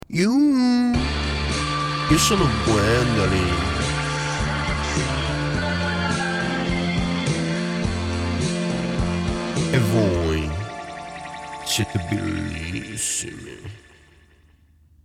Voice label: Jingle YUUUUHHUU